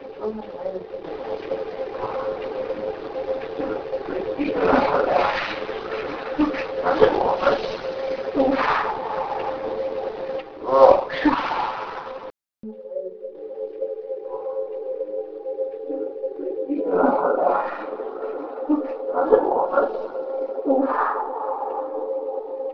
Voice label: voice disturbance; Weird Voice Disturbance of Investigators; the voices of our investigators sounds extremely distorted during one segment of the tape